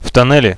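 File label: in the tunnel, Russian, radio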